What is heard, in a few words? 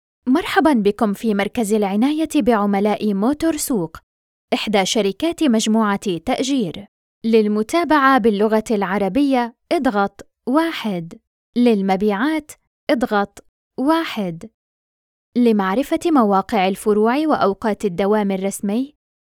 Phone system